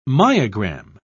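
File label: "myogram", British English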